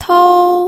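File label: "tou1", Mandarin Chinese, pinyin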